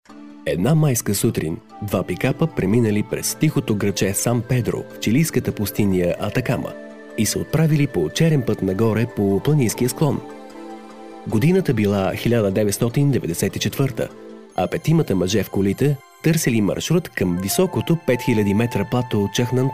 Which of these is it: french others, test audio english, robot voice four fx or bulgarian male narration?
bulgarian male narration